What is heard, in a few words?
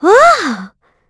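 Vox Happy, Korean; Hilda; kingsraid; voices heroes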